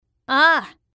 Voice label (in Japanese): "ああ", ASMR